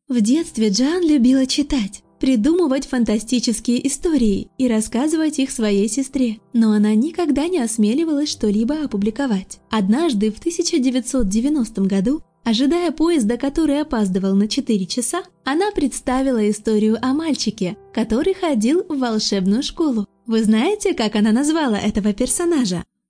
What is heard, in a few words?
Russian Female Voice Over Warm Calm